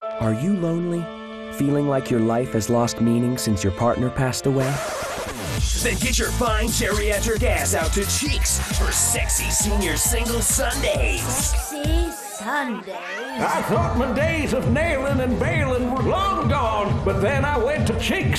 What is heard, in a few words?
(Commercial); Sexy Seniors Single Sundays